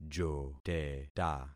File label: "joteta", concat syllables; Affixing experiment